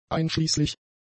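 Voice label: "einschließlich", German; adjectives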